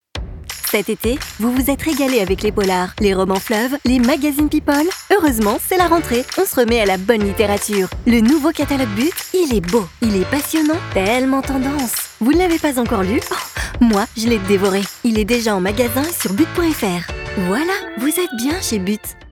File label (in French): spot, voix off publicitaire commercial